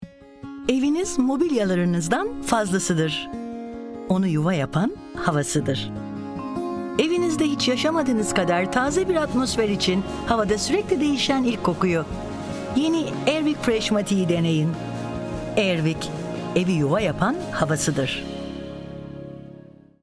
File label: Radio Brand